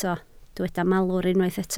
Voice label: "malwr", Welsh